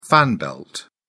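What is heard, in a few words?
"fan belt", British English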